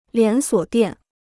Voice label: "连锁店", Chinese

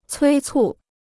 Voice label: "催促", Chinese

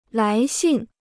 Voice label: "来信", Chinese

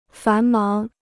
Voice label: "繁忙", Chinese

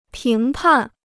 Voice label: "评判", Chinese

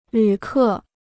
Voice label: "旅客", Chinese

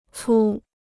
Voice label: "粗", Chinese

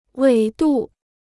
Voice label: "纬度", Chinese

